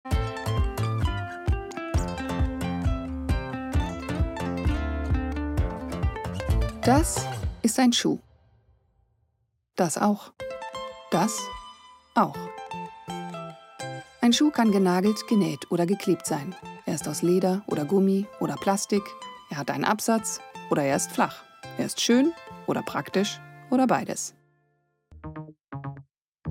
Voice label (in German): Doku Schuhe; arte; 7Sachen; LOFT VOICES